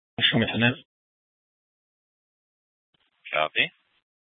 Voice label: Mesa PD Dispatch